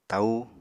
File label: "taú", apatani